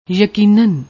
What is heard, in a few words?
"yakinan", Punjabi